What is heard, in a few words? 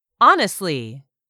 "honestly", English; 3000 words